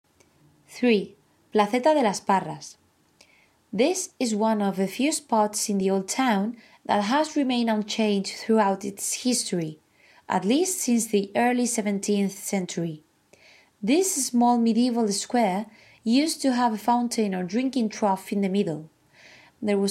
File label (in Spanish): ingles; Placeta de las Parras